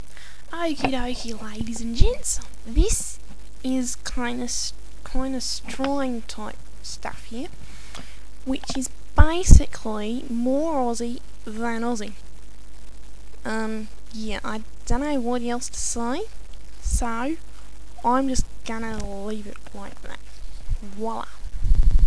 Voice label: strine